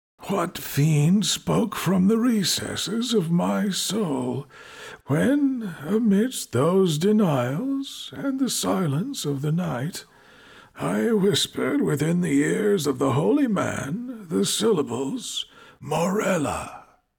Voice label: ancient elderly whisper

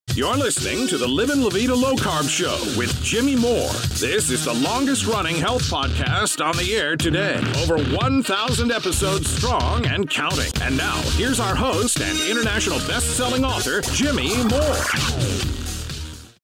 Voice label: Podcast Intro; LLVLC

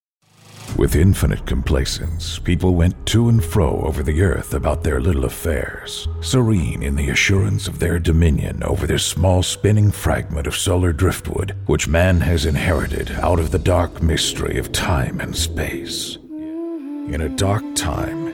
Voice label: Trailers Voice

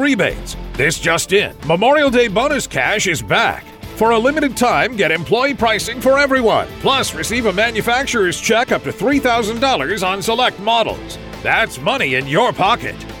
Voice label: commercial dealer; Nissan Dealer

